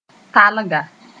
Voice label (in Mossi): "talga", moore